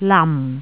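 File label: "lam4", Cantonese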